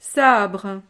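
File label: "sabre", French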